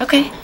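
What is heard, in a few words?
"okay", female voice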